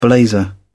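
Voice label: "blazer", British English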